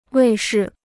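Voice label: "卫视", Chinese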